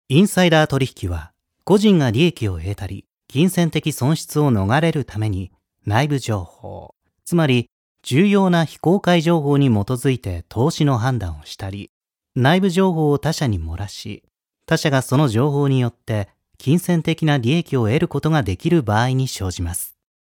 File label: jp elearning